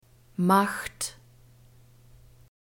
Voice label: "macht", german